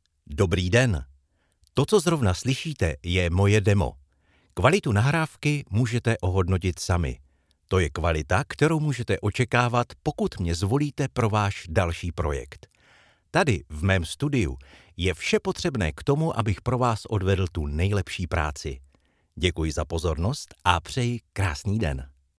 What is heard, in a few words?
czech male